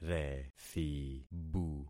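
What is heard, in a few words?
"rethibu", concatenated syllables; Affixing experiment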